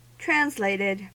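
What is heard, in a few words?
"translated", US English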